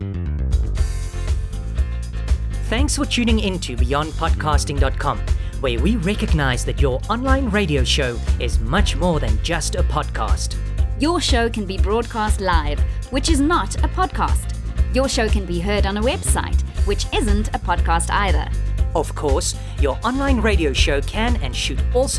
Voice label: Clear Voiceover; BeyondPodcasting